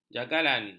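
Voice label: "jagalan", Malay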